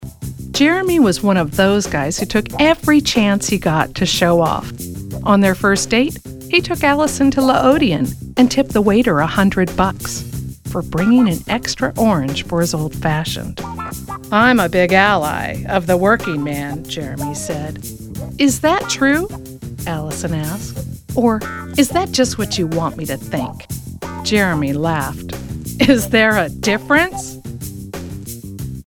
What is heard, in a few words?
english american audiobooks